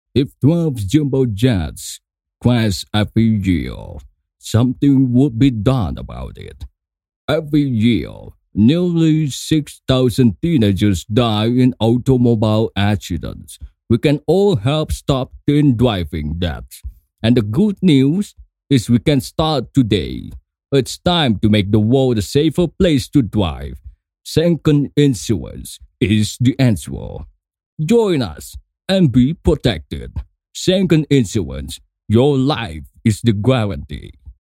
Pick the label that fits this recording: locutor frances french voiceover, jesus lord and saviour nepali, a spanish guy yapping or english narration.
english narration